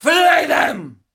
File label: Female Taunt, Cannibal, dialogue